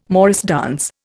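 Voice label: "morris dance", Indian English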